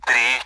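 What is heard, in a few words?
Speech, Russian, AWACS; Digits; 3; DCS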